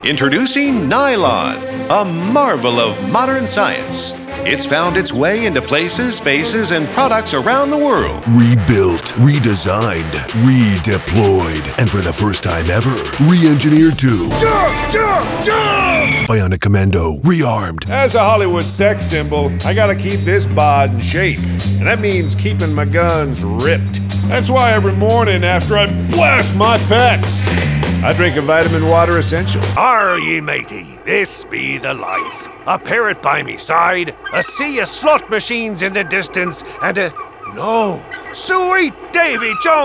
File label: Character